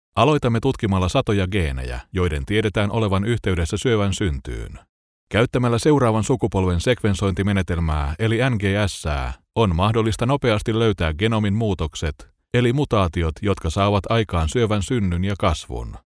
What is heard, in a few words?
finnish male elearning medical